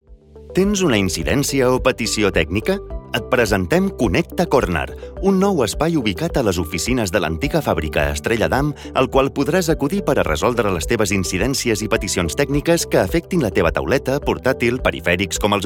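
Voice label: Catalan Elearning; voice demos; GoLocalise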